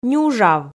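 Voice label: "нюжав", Komi, female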